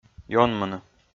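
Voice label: "ёнмыны", Komi, male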